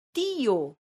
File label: "tio", esperanto